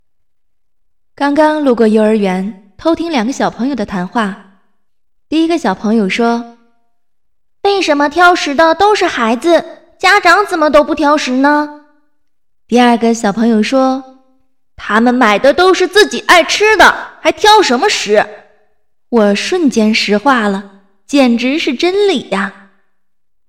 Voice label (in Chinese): 男童女童对话